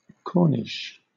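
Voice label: "corniche", British English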